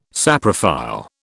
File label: "saprophile", British